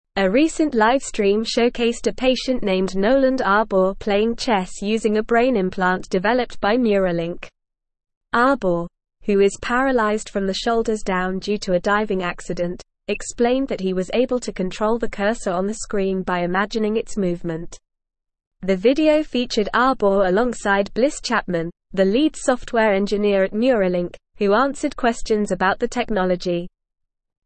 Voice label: English Newsroom Advanced NORMAL Reading; Paralyzed Patient Plays Chess Using Brain Implant